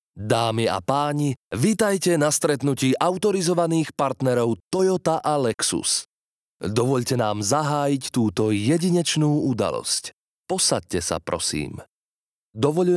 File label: slovak narration